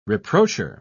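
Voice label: "reproacher", British English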